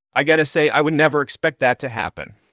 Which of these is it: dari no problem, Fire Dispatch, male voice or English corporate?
male voice